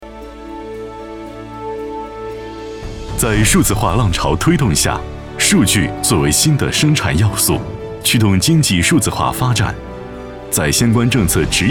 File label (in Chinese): 男 年轻专题; 在数字化浪潮推动下